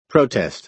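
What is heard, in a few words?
"protest", American English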